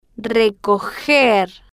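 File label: "recoger", slow, spanish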